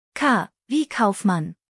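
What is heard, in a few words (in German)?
"kaufmann", German; buchstabierung alt; K wie Kaufmann; Buchstabieren Sie bitte!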